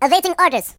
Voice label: "Yes sir", Russian; hedgewars